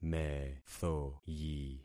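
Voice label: "methoyi", concatenated syllables; Affixing experiment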